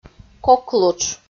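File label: "коклутш", Komi, female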